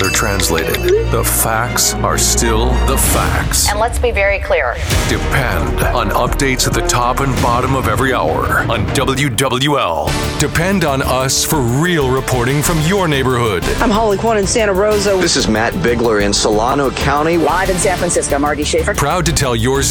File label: News